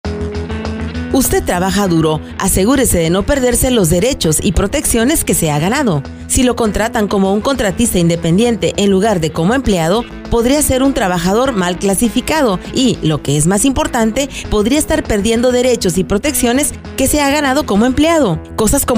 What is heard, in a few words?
radio, spa; worker misclassification